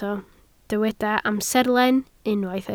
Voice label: "amserlen", Welsh